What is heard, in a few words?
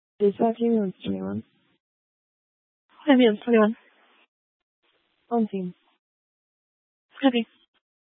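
Fire Dispatch, Scanner audio recording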